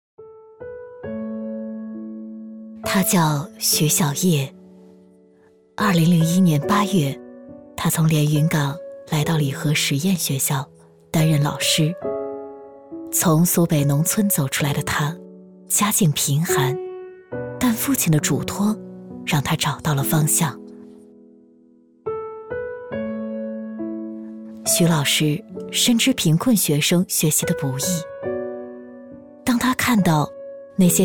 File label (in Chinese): 专题 人物 人民教师 温暖